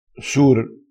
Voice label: "sur", crespinese

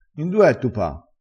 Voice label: "terre", vocabolario dialettale crespinese

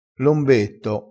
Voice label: "lombetto", crespinese, vocabolario dialettale

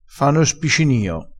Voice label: "spicinio", crespinese

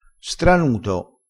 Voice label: "stranuto", crespinese

